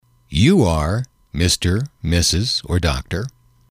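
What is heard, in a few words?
you are Mr Mrs Dr, male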